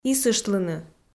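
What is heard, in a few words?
"исыштлыны" Komi, female; audio dict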